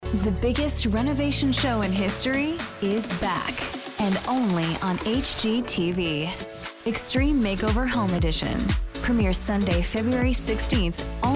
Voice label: TV PROMO